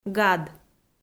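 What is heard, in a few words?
"гад", Komi, female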